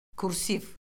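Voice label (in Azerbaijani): "kursiv", Azerbaijani; Orfoqrafiya Lüğəti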